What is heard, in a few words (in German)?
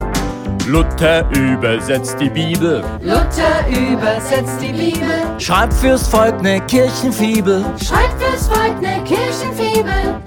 hoerspiel